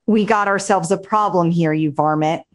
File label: upset; beth; fertig classic games